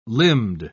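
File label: "limbed", British English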